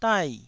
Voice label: "dai4", Taiwanese syllable

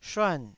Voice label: "shuan4", taiwanese Syllables

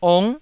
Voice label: "ong9", taiwanese

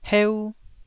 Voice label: "heu1", taiwanese

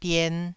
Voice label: "dien2", taiwanese syllable

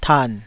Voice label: "tan3", Taiwanese

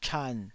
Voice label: "chan3", taiwanese

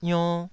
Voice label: "ionn2", taiwanese syllables

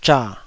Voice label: "zha3", Taiwanese

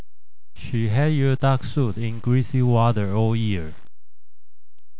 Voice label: speech bad

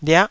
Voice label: "liah9", Taiwanese syllable

